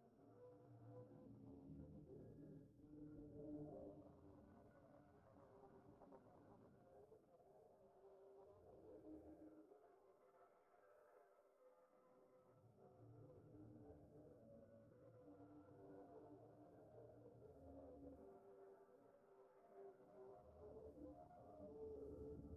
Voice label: an unsettling alien voice

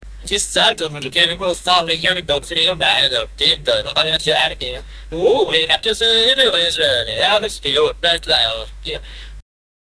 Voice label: not today buddy not today, assertive

